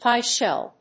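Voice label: "pie shell", English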